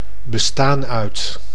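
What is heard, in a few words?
"bestaan uit", Dutch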